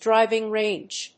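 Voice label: "driving range", English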